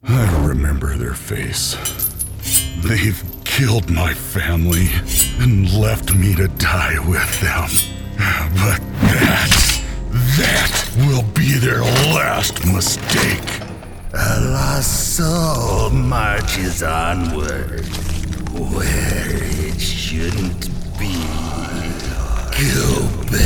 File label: Character